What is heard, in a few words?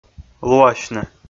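"луасьны", Komi, male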